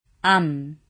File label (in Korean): "암", korean